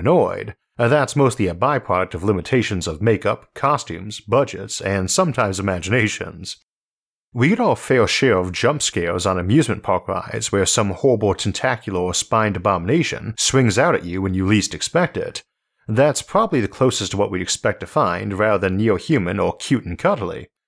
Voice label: terrifying aliens narration only; isaac arthur

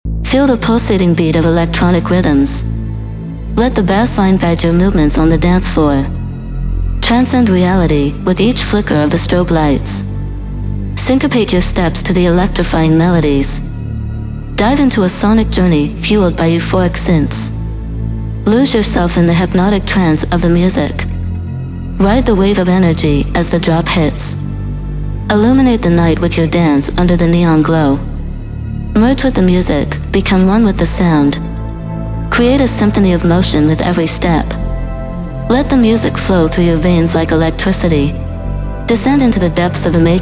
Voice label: edm vocal phrases; highlife samples

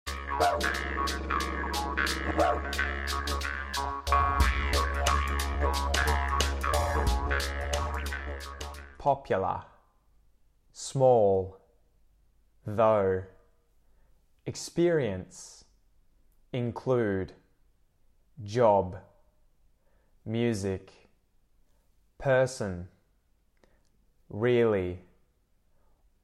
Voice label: most common words australian accent pronunciation; theaussieenglishpodcast

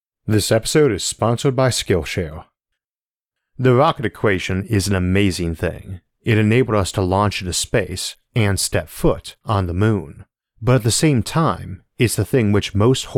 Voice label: narration only; isaac arthur; m powered spaceships

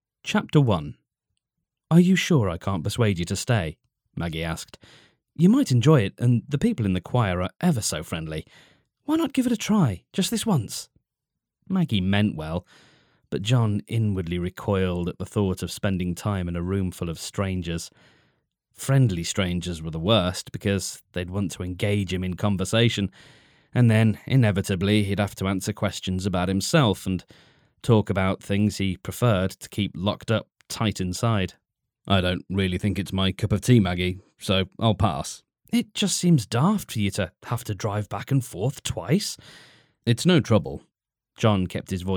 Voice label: audiobook sample; imperfect harmony by jay northcote

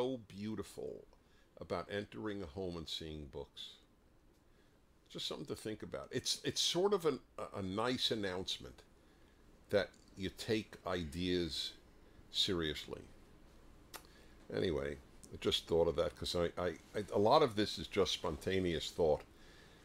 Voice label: interview with german medical student; fireside chat

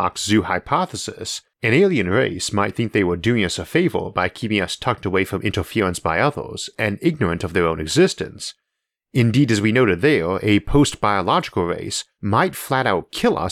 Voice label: benevolent aliens narration only

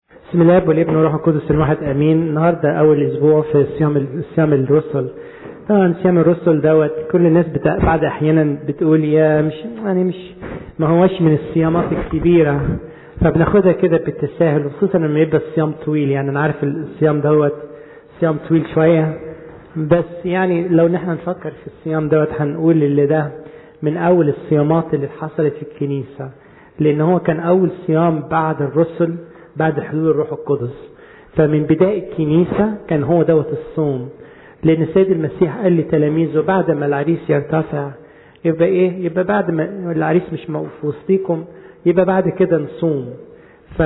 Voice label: arabic sermon; upperroommedia; learning from the apostles

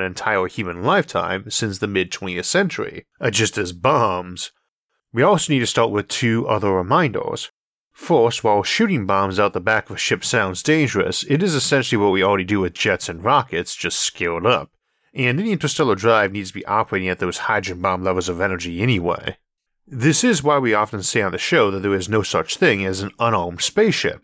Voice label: fusion propulsion narration only; isaac arthur

